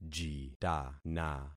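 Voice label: "jitana", concatenated syllables; Affixing experiment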